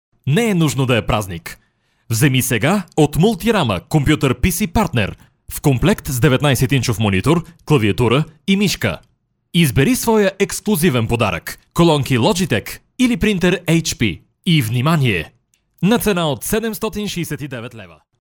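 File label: Bulgarian, male, commercial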